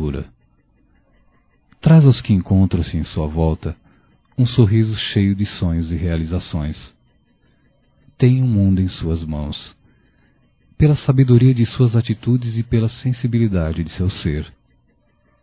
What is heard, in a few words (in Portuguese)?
Dia da Mulher Masculino; Dia internacional da mulher; Telemensagens 2017